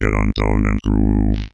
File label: Get On Down And Groove G#2, Robotic Vox; 90s Sample CDs; Club Techno